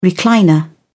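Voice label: "recliner", British English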